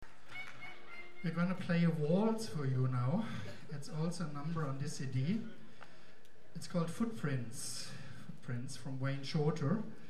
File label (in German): Ansage